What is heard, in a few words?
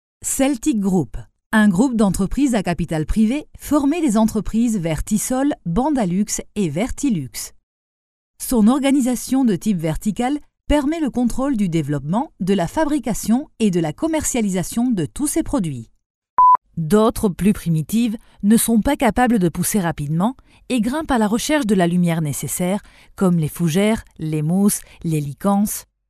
locutora francesa french voiceover